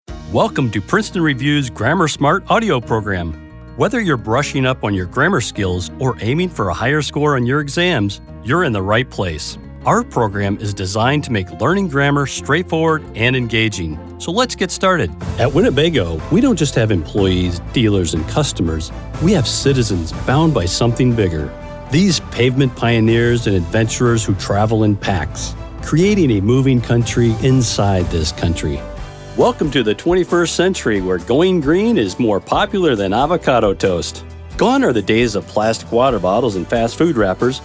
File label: vo narration